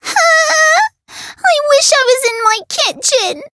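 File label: Voice encounter fear; loveli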